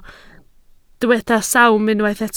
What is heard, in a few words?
"sawm", Welsh